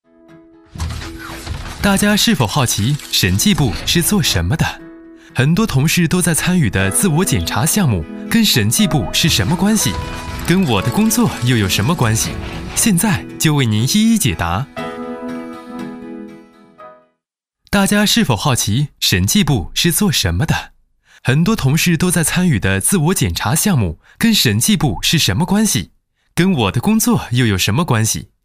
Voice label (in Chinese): 男 MG动画 培训, 国语男声配音, 青春时尚